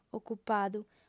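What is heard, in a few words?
"ocupadu", female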